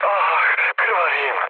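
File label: Serbian damaged pilot mood low, speech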